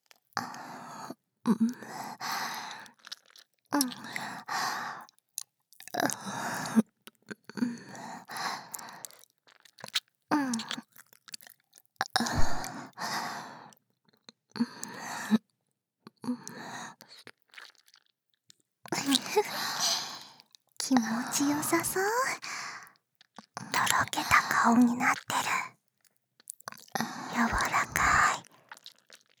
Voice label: 囁きと耳舐めとキスと手コキと

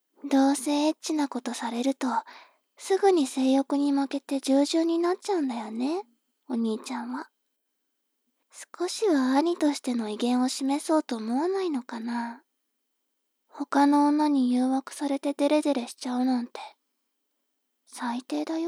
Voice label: 妹 台詞

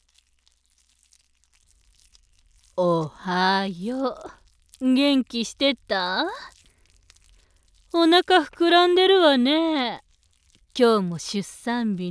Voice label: その後 喘ぎ声なし, ASMR

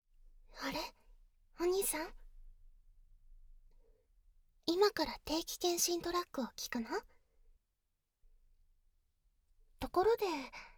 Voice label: 定期健診の説明, ASMR

